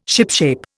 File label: "shipshape", Indian